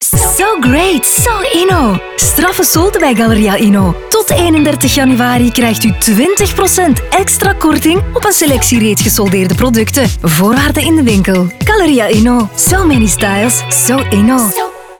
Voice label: Radio; Solden